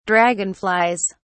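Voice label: "dragonflies", British English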